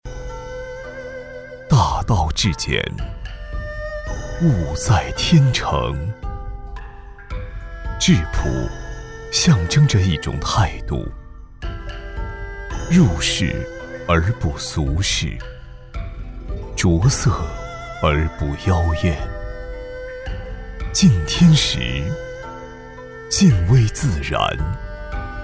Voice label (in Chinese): 男 广告, 厚重有力 质感磁性; 汾酒